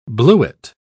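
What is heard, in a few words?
"bluet", British English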